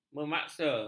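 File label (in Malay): "memaksa", Malay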